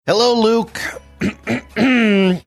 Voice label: clearing throat